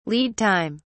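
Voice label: "lead time", English, British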